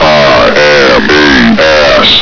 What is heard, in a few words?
sorry, voice commands, grunt; svencoop